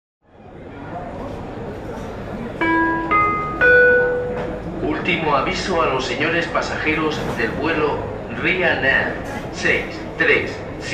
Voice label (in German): Flughafen Ansagen, Barcelona